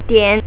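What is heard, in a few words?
"DIAN", Chinese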